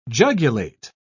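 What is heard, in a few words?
"jugulate", British English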